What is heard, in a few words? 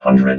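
"hundred", robot voice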